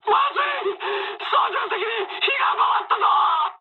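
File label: on fire mood med, speech japanese; War Thunder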